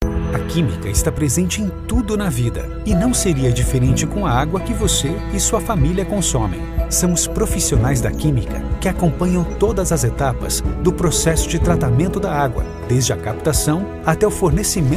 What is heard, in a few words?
portuguese brazilian explainer videos; voice actors